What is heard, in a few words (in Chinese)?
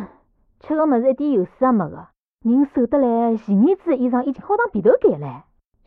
偷听对话; c02 6偷听对话 李氏